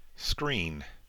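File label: "screen", American English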